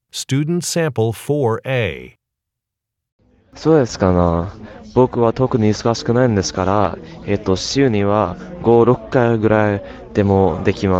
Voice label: japanese conversation